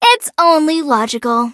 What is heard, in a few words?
victory en